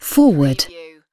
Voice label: "forward", UK female; playcontrols; lynne publishing